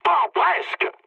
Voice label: bomb failure mood med, speech fr; War Thunder